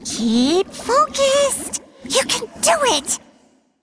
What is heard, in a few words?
Female Brew Elemental Combat Loss